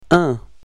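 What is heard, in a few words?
"in", French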